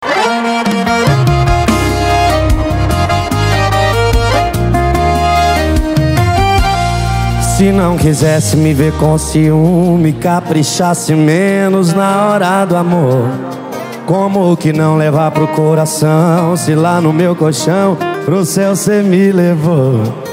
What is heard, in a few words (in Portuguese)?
locutor a voz magnifica